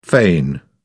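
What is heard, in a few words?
"fain", British English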